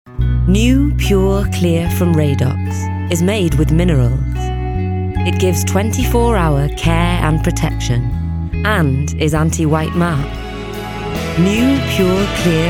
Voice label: Commercials, A rich and velvety Welsh voice.; 20s-40s; Fluent Welsh and Spanish speaker